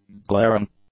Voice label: "glaring", english; adjectives